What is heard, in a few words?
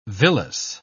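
"villous", English, British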